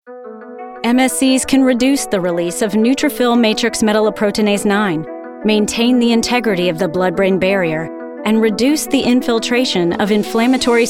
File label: Medical Narration